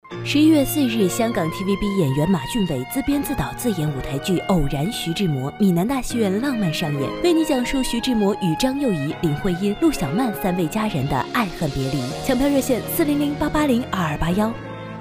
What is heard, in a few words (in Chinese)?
宣传广告; 闽南大戏院偶然徐志摩